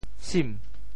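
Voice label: "sim2", Teochew; 沁; qìn; 潮州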